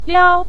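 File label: "liao", Mandarin Chinese neutral tone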